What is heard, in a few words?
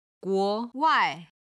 "guo2wai4", chinese